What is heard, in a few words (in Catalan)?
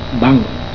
"banc", Catalan; Bank